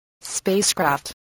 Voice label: "spacecraft", English voice